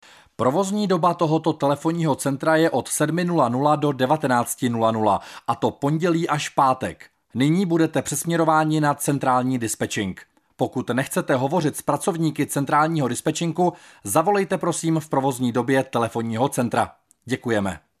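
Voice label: male Czech IVR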